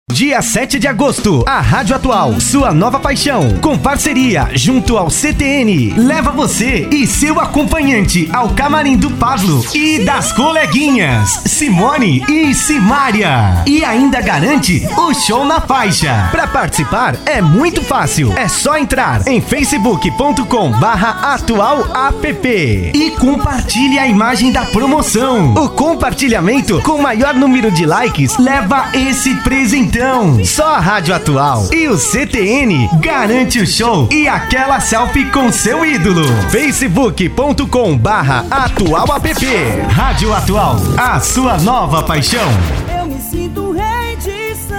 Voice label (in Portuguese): vinheta voz; vinhetas off